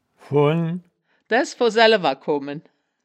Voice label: "vun", wittlich

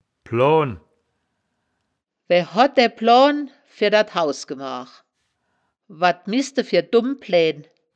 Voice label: "ploan", wittlicher; das wittlicher woerterbuch; woerterbuch vertonung

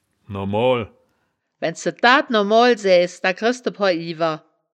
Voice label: "namoal", wittlich; das wittlicher woerterbuch; woerterbuch vertonung

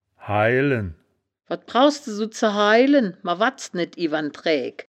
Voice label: "hailen", wittlich

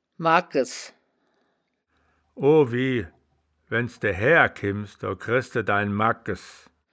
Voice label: "magkes", Wittlicher; das wittlicher woerterbuch; woerterbuch vertonung

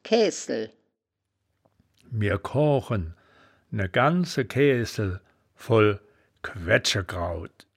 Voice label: "kaeaehsel", wittlich; das wittlicher woerterbuch; woerterbuch vertonung

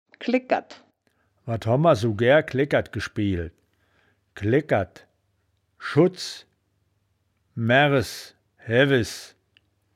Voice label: "klegkerd", wittlich; das wittlicher woerterbuch; woerterbuch vertonung

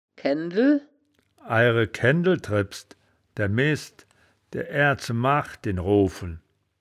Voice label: "kenndel", wittlich

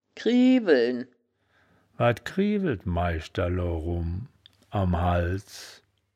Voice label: "krieweln", wittlich; das wittlicher woerterbuch; woerterbuch vertonung

